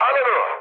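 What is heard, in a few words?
attack position, mood high, Turkish; War Thunder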